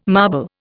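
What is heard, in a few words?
"marble", Indian English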